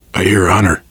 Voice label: heard hunter, voice, biker